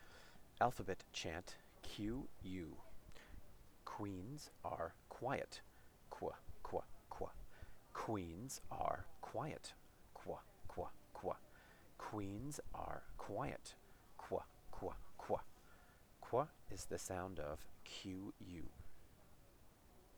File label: qu chant; The Alphabet Chant Qu